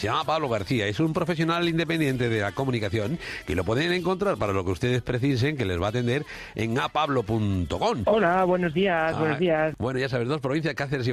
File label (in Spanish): entrevista radio